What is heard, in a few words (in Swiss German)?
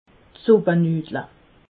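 "suppenudle", Alsatian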